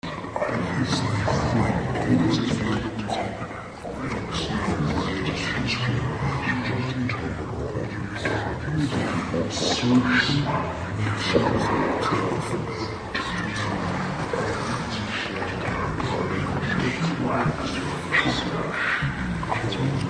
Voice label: dark whispering entities

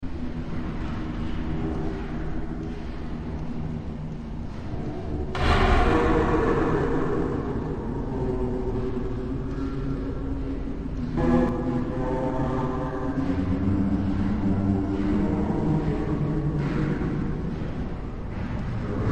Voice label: dark distorted voices

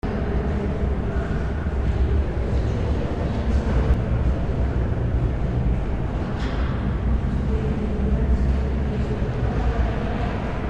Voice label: museum echoing voices

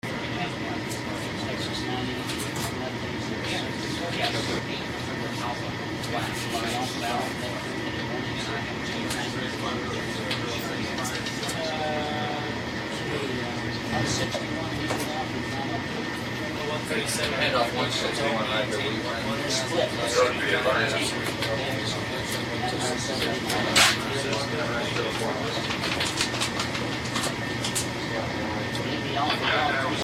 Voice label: atmo airport traffic control tower

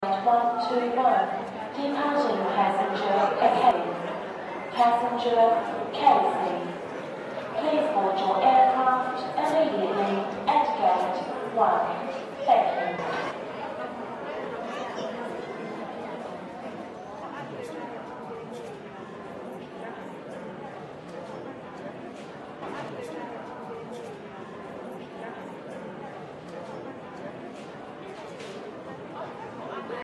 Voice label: atmo airport announcements australian

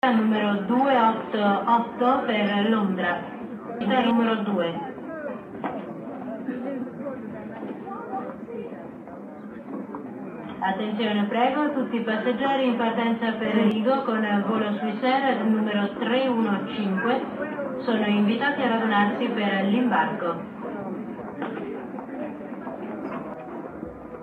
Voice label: atmo airport announcements italian

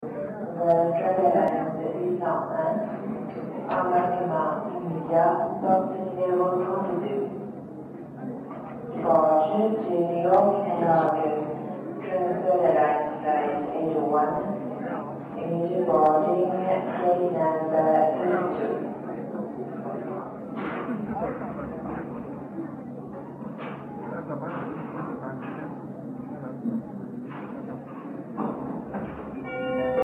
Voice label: atmo airport announcements french

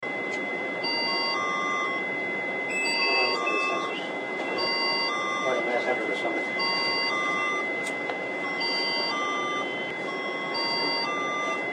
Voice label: atmo airport traffic control tower

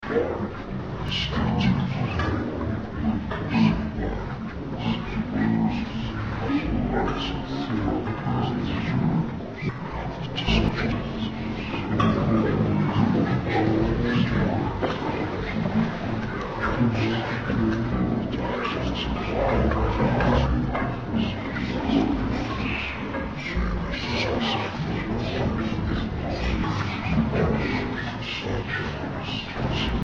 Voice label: dark distorted voices

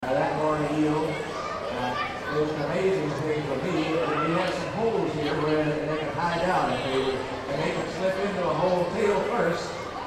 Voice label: atmo aquarium exhibit presenter

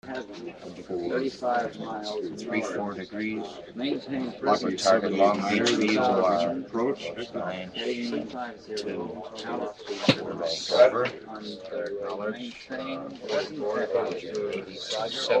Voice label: atmo airport traffic control tower

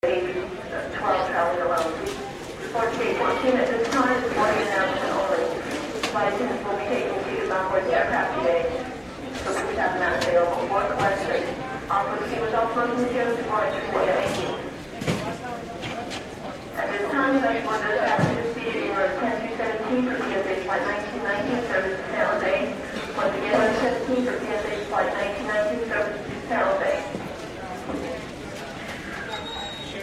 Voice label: atmo airport announcements

